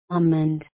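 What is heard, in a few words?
"almond", USA, female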